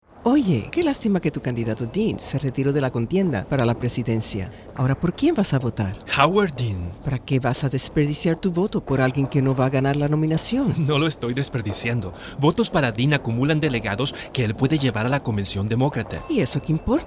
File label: ad spanish; supertuesday; dean